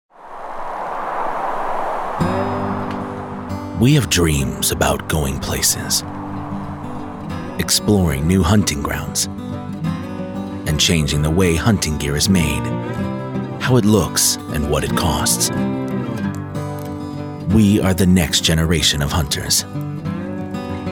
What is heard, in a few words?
deep enticing conversational male voice